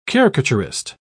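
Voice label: "caricaturist", British English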